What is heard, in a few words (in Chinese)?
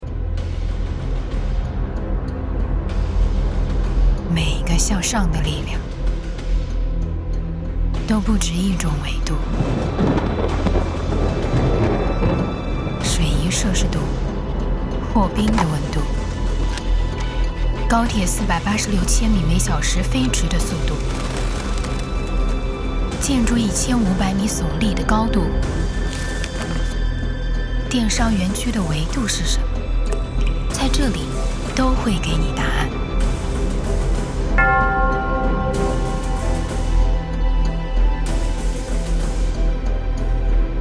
走心低沉神秘